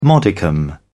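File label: "modicum", British English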